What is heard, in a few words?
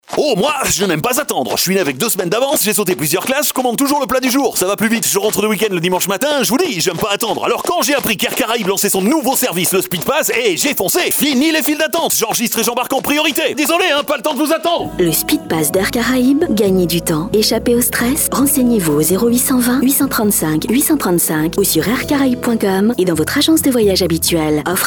spot, radiopub